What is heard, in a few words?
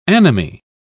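"anomy", British English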